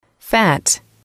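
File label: "fat", English, female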